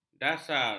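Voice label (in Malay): "dasar", Malay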